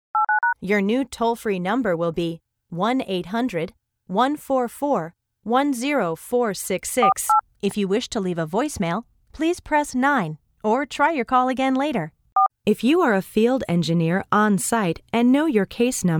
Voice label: ivr